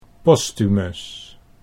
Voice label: "Posthumus", Dutch

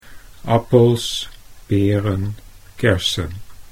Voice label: "appels", Dutch

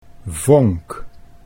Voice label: "vonk", Dutch

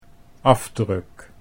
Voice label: "afdruk", Dutch

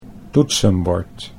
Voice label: "toetsenbord", Dutch

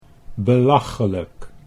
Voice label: "belachelijk", Dutch

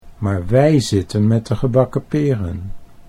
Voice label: maar wij zitten met de, Dutch

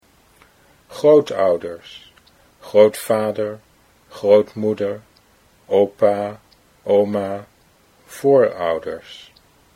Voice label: grootouders grootvader, Dutch

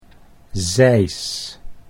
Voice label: "zeis", Dutch

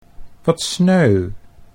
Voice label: wat sneu, Dutch

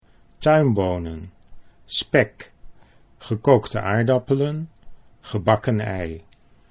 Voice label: tuinbonen spek, Dutch

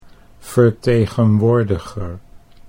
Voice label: "vertegenwoordiger", Dutch

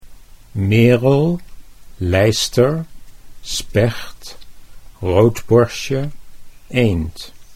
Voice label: "merel", Dutch

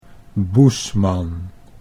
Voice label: "Boesman", Dutch

